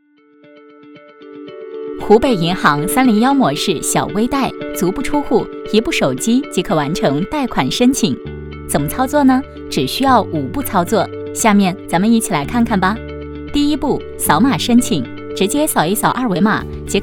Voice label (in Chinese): 女 轻松 小微贷业务流程; 湖北银行